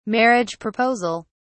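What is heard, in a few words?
"marriage proposal", British English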